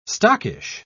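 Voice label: "stockish", English, British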